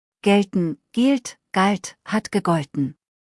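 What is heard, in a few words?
"gelten", German; verben kurzliste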